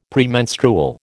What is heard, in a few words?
"Premenstrual", American